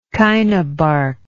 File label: "china bark", English